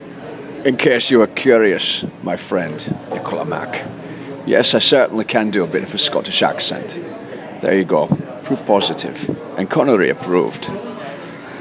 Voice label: a scottish accent

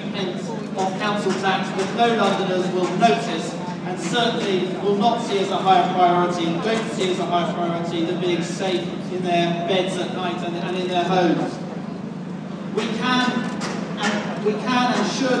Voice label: speech at anti fire cuts demo; stephen knight am

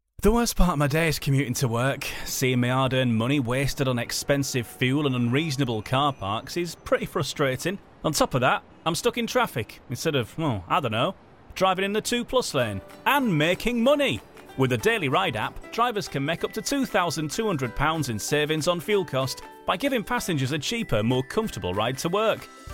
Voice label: radio advertisement; radio airtime media

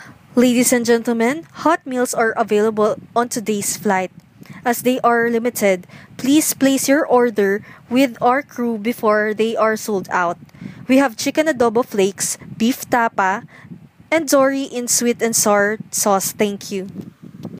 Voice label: airplane announcements

